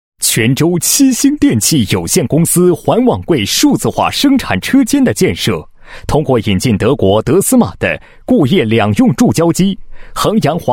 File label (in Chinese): 男 企业 数字化车间 环网柜数字化车间生产流程, 配音案例